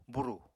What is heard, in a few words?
"burù", Apatani